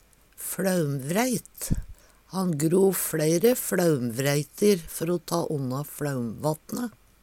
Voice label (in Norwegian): fLaumvreit, Numedalsmål; Substantiv hokjønn